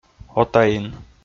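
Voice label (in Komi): "отаин", Komi, male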